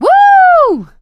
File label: positive noise; source engine; left 4 dead 2; survivor; producer